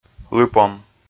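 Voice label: "лыпом", Komi, male; kpv audio dict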